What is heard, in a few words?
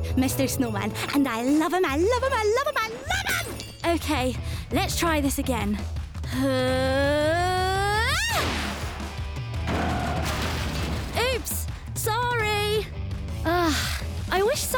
Animation